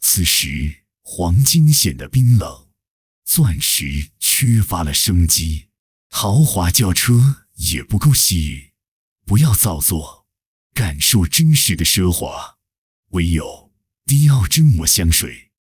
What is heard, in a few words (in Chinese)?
广告词简短